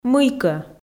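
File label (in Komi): "мыйкӧ", Komi, female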